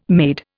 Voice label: "made", Indian

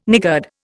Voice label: "niggard", Indian

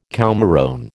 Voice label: "coumarone", American

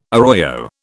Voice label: "arroyo", British

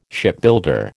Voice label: "Shipbuilder", American

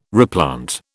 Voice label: "replant", British

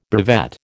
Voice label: "brevet", American

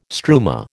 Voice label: "struma", American

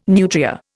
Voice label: "nutria", Indian

